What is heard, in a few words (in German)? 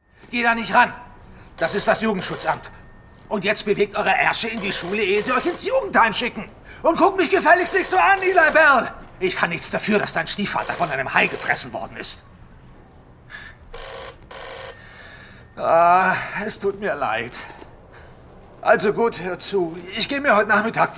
Synchron, maenner; Boy Swallows Universe